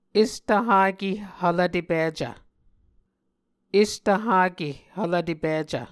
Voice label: "estvhake hvlvtepicv", Muscogee

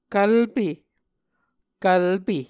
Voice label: "kvlpe", Muscogee

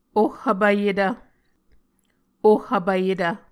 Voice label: "oh vpvyetv", Muscogee

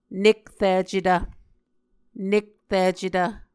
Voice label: "nekricetv", Muscogee

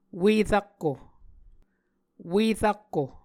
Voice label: "ue-rakko", muscogee; Mvskoke Words with Repeated Consonants; A Dictionary of Creek/Muskogee; SIL International

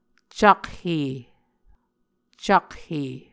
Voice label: "cakhe", muscogee

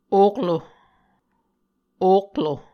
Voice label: "oklo", Muscogee

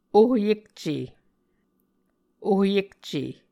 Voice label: "ohyekce", Muscogee

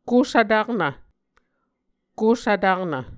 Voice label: "kohsvtaknv", Muscogee

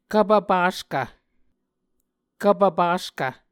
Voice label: "kvpvpaskv", Muscogee

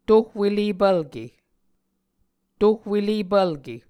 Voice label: "tohwelepvlke", muscogee

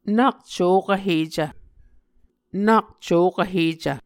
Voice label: "nak cokv hecv", Muscogee

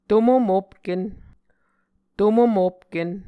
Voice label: "tomomopken", Muscogee

